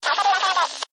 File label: amb Police Chatter Oct Up; hotline2